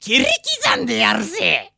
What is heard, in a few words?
voice berserk